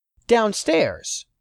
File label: "downstairs", English; 3000 words